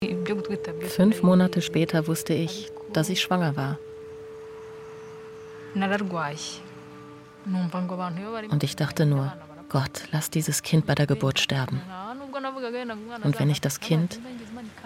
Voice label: voiceover